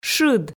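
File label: "шыд", Komi, female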